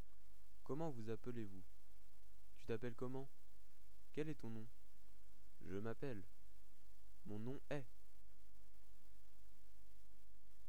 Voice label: French Vocabulary - Introductions